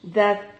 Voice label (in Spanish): "dad", Silabas